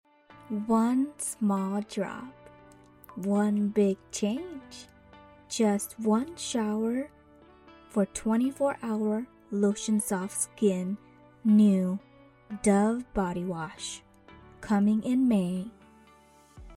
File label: commercial